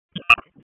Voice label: EMS ALERT; wake; p25

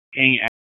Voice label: PS TAC, p25; wake

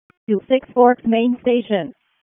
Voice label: EMS ALERT

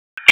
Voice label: FIRE OPS, p25

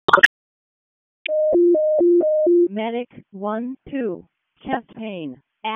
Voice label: WC EMS ALERT, p25

